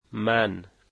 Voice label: I, Dari